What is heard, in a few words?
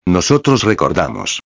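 "recordamos", Spanish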